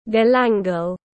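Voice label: "Galangal", English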